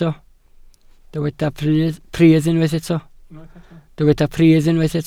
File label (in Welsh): "pridd" Welsh